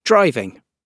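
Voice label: "driving", British English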